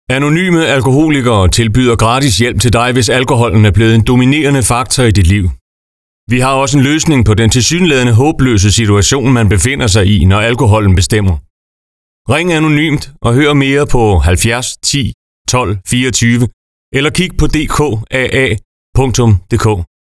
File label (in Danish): Radiospot; AA-service i region Midtjylland